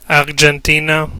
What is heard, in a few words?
"argentina", en; gcompris-data; geography